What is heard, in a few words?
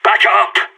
radio bot fallback, sas; agents